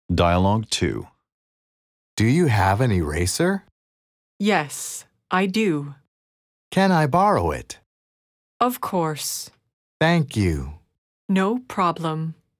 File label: Dialogue slow